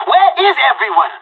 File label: help, pirate; Counter-Strike Global Offensive